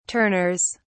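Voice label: "turners", British English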